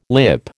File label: "lip", American